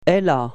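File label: "elle a", French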